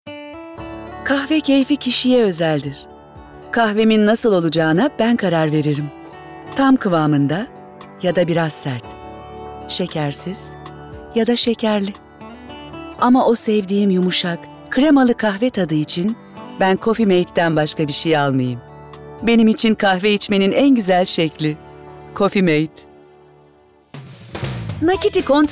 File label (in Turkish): REKLAM